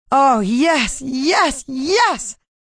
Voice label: Oh Yes Yes Yes, Female; Sex; VoiceBox